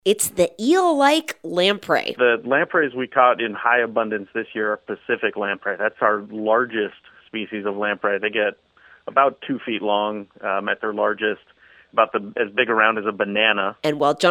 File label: Fish count radio interview